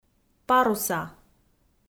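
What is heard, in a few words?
"паруса", Komi, female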